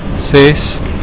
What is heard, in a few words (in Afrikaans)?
"ses", Afrikaans